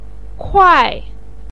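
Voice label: "kuai", Mandarin pinyin, fourth tone; 斑; bān kuài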